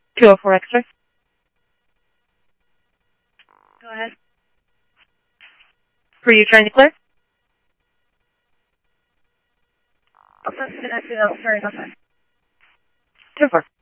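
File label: police department, scanner audio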